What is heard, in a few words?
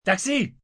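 Taxi, male, French; Talking Sound Effects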